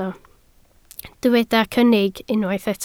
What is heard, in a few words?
"cynnig", Welsh